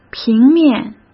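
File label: "平面", Mandarin Chinese; hsk; chinese flashcards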